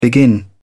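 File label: "begin", en, uk British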